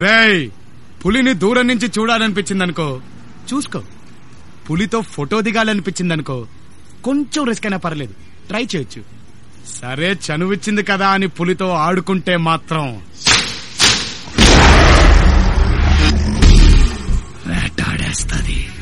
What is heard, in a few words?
Dialogue Ringtone; Yamadonga; NTRs Puli